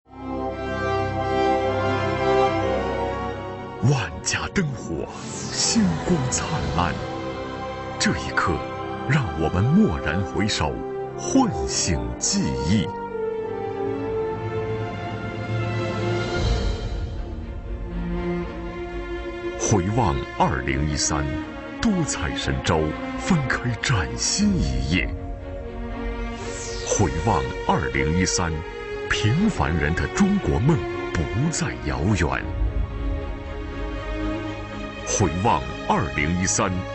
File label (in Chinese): 男声 颁奖 开场; 感动2013